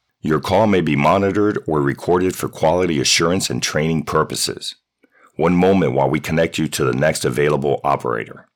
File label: telephony, voice over; Clear Wave Voice Over